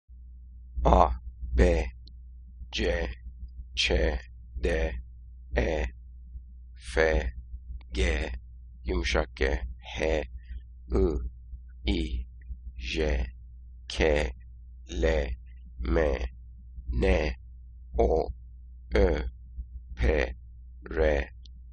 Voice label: Turkish alphabet narration